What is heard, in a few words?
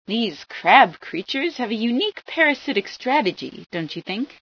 headcrab, female